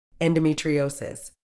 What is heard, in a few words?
"endometriosis", uk